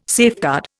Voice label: "safeguard", Indian

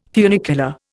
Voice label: "funicular", Indian

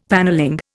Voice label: "panelling", Indian; Dictionary

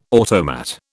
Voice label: "automat", British

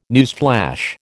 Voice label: "newsflash", American

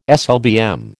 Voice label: "SLBM", American

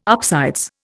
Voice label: "upsides", Indian

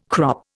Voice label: "crop", Indian

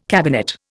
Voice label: "cabinet", Indian

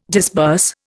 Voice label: "disburse", Indian

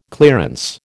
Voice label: "clearance", American

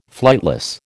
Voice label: "flightless", American

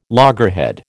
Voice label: "loggerhead", American

